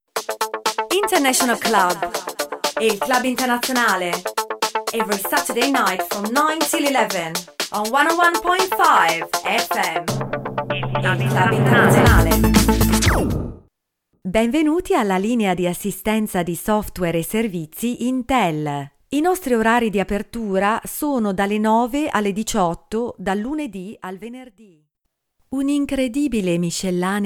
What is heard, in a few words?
female, Italian, English with Italian accent and Italian radio promo